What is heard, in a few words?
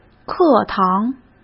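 "课堂", Mandarin Chinese; chinese-flashcards; hsk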